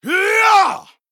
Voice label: Male Troll Attack